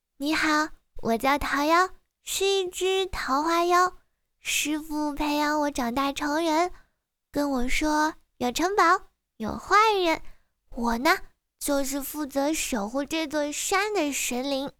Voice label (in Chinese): 游戏角色 全声线 角色音